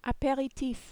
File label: "aperitif", French